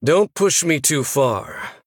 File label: Male Human Threaten